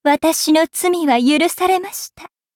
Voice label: level up, ja